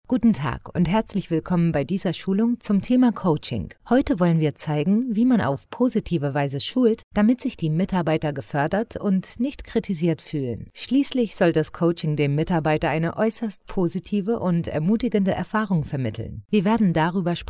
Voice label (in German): Schulung coaching